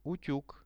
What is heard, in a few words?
"utug", Russian; утюг; veshi; Что это? Это утюг.